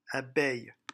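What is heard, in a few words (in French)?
"abeille", French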